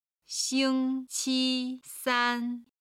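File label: "xingqisan", Chinese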